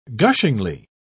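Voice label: "gushingly", British English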